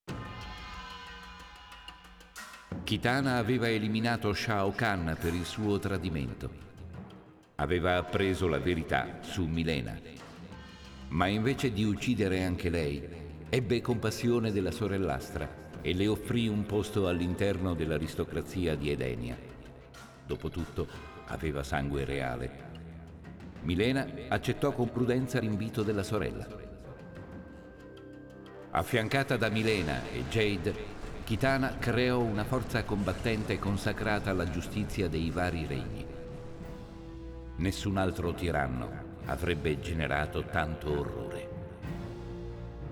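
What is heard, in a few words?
music voice over endings Italian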